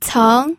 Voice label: "ceng2", pinyin

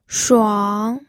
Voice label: "shuang3", pinyin

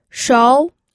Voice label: "shou2", pinyin

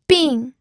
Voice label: "bing4", pinyin

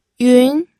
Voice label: "yun2", pinyin

